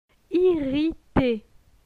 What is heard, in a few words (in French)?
"irrite", francais